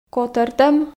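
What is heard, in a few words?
"котӧртӧм", Komi, female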